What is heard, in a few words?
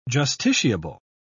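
"justiciable", British English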